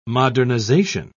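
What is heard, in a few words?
"modernisation", British English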